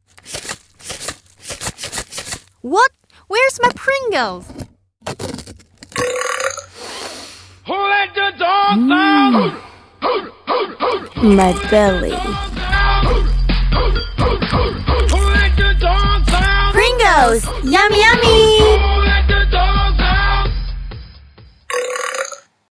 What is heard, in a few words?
radio advert